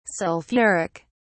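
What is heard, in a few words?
"sulphuric", British English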